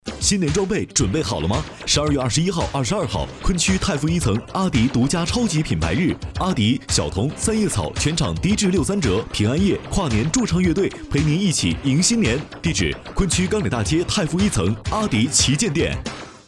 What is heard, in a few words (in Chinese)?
广告年轻酷炫科技感